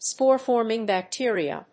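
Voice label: "spore-forming bacteria", English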